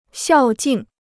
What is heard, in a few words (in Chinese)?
"孝敬", Chinese